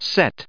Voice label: "set", us